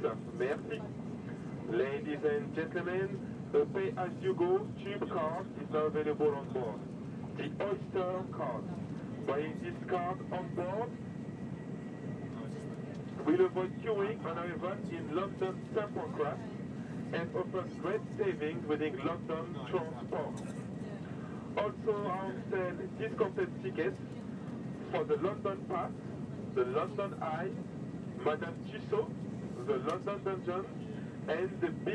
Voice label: tourist focused announcement on eurostar